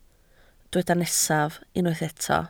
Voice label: "nesaf", Welsh